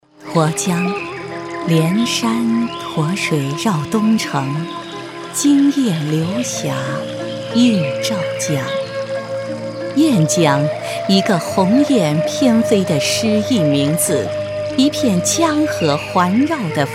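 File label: Mandarin Chinese, female, voice over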